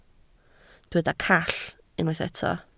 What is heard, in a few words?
"call", Welsh